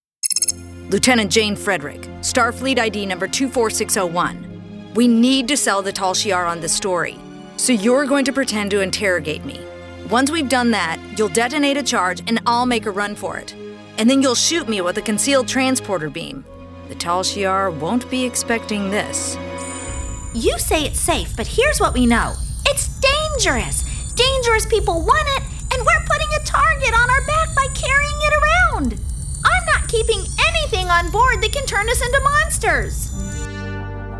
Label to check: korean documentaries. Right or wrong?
Wrong